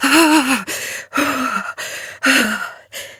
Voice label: Operator Voice; Warframe; Operator Mission; Generic Cold Shiver